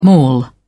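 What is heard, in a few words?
"mall", English, uk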